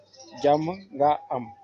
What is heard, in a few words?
howareyou, wolof